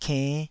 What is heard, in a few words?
"kenn5", taiwanese syllables